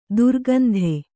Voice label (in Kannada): "ದರಿಕಂದ", Kannada